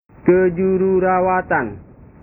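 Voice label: "kejururawatan", Indonesian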